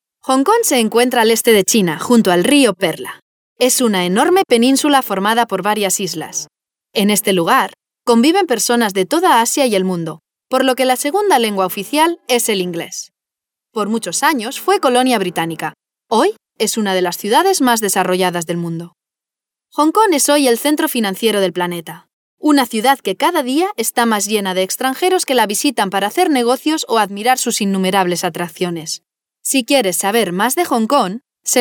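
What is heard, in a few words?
Spanish female corporate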